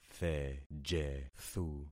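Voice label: "thejethu", concatenated syllables; Affixing experiment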